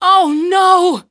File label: oh no startle; Half-Life 2; alyx